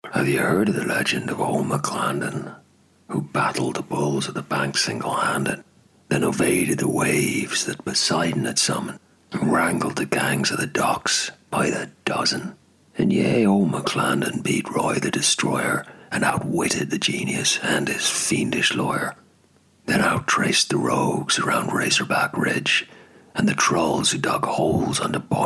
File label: Irish